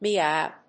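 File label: "meow", English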